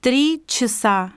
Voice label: russian 1 hour; samsung YP-U5; Audio User Interface

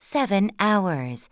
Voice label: english 6 hour; samsung YP-U5; Audio User Interface

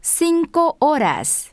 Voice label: spanish 9 hour; samsung YP-U5; Audio User Interface

